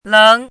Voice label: "leng2", 汉字语音

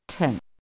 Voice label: "chen5", 汉字语音

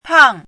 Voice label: "pang4", 汉字语音; chinese-voice

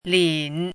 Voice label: "lin3", 汉字语音; chinese-voice

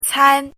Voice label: "can1", 汉字语音; chinese-voice

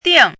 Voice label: "ding4", 汉字语音; chinese-voice

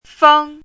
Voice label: "feng1", 汉字语音

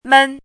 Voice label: "men1", 汉字语音

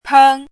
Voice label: "peng1", 汉字语音; chinese-voice

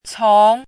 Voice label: "cong2", 汉字语音

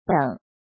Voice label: "beng3", 汉字语音; chinese-voice

